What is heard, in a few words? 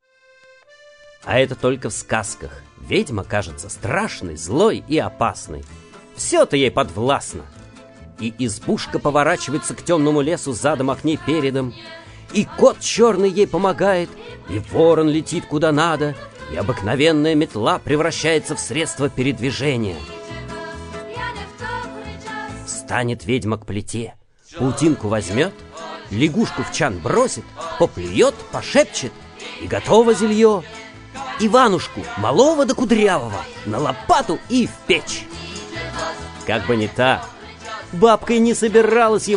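Russian, male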